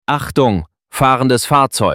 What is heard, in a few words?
Voice Alarm DE; blaxtair solutions